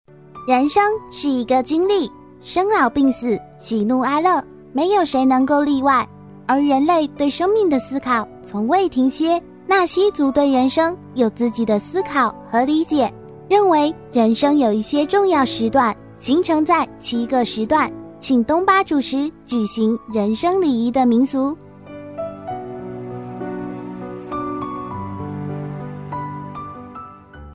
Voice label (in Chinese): 童声; 人生